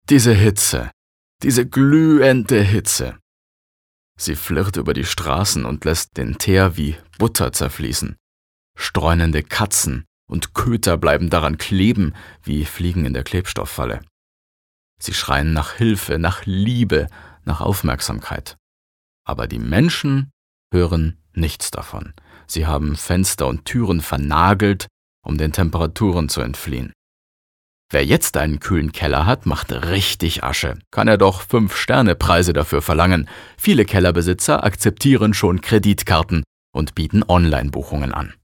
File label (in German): Schauspiel Neutral